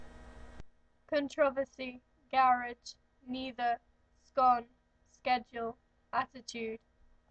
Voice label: stowmarket suffolk female